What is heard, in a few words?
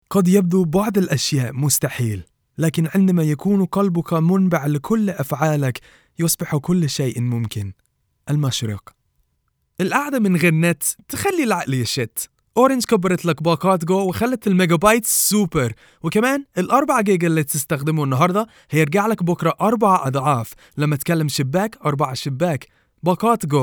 Arabic